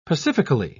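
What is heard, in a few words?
"pacifically", British English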